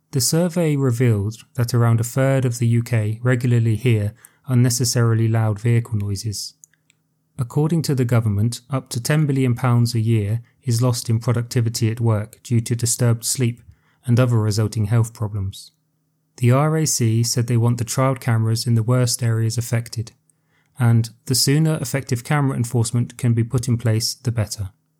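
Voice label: advanced c1 noise cameras audio, EFL & ESL Listening; UK trial modified cameras to end noisy vehicles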